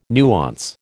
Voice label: "nuance", American

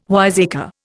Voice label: "wiseacre", Indian

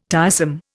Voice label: "tiresome", Indian

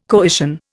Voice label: "coition", Indian

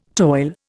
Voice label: "toil", Indian

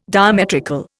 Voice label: "diametrical", Indian

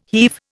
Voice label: "heave", Indian

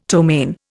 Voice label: "ptomaine", Indian; Dictionary

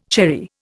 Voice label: "cherry", Indian

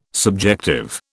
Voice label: "subjective", British

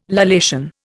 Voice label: "lallation", Indian

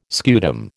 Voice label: "scutum", American

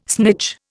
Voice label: "snitch", Indian

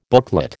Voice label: "booklet", American

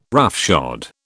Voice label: "roughshod", British

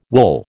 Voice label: "wool", American

